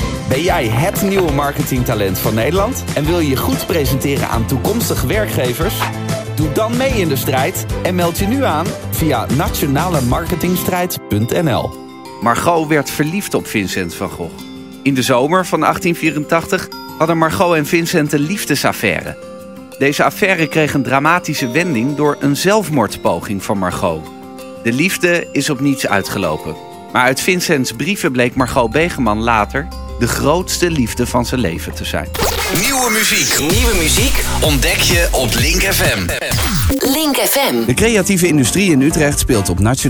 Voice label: Voice Over